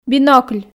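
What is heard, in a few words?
"бинокль", Komi, female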